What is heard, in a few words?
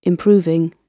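"improving", British English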